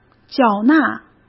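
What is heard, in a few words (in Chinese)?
"缴纳", Mandarin Chinese; chinese-flashcards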